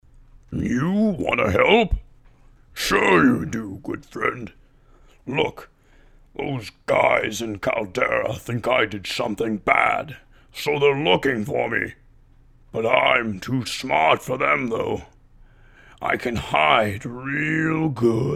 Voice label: orc, voice auditions